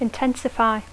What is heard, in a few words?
"intensify", English